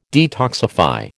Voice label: "detoxify", American